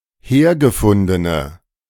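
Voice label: "hergefundene", German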